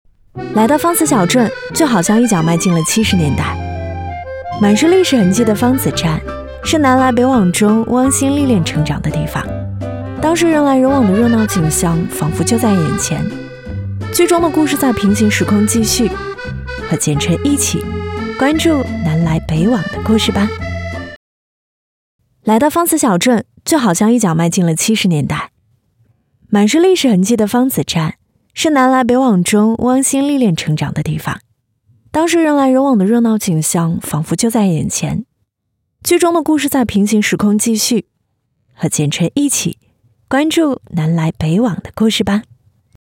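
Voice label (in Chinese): 独白旅游年轻素人自然口语化; 房琪风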